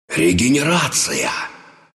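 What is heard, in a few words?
regen, Russian; Dota 2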